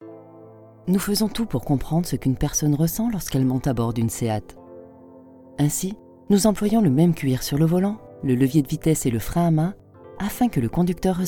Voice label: french automotive

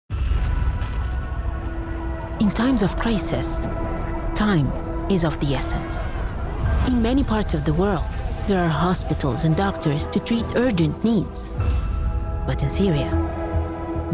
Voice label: English commercial

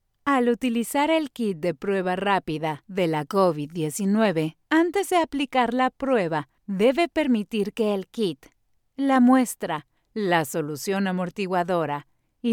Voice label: spanish mexican others

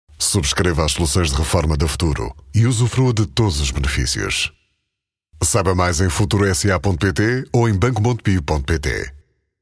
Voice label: portuguese promos

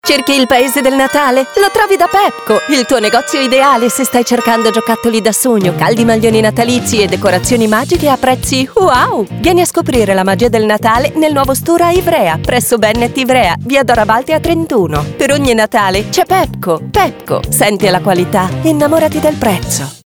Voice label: italian promos

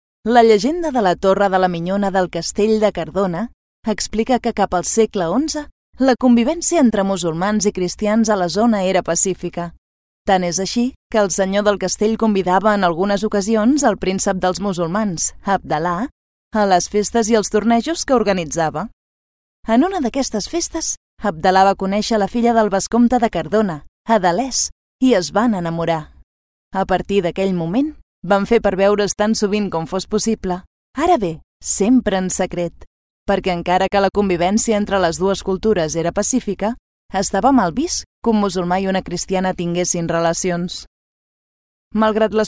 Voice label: catalan narration

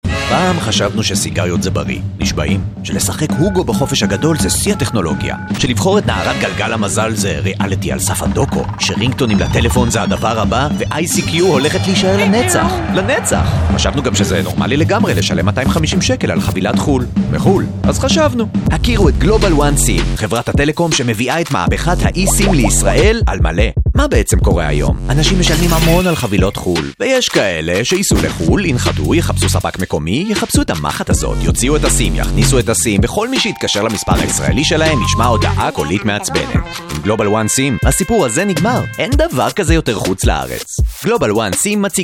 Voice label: hebrew commercial; Iceland

